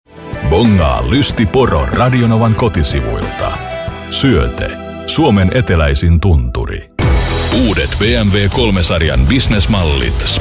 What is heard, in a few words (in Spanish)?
comercial finlandés